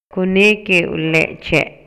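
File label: Maya, male; INALI; MayaYuc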